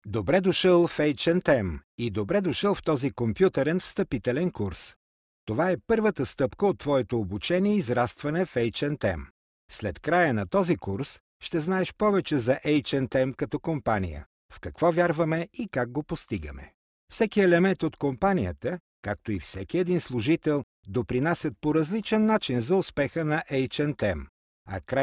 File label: bulgarian m e-learning; voice demos